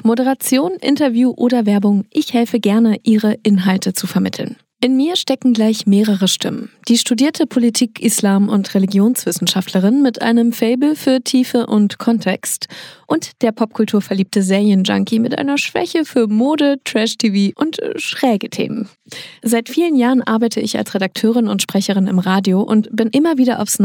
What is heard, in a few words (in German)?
Hoerprobe, podcast moderator; Stimmen, die Geschichten lebendig machen; podcast-eins